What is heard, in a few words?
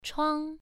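"chuang" Mandarin, first tone, pronunciation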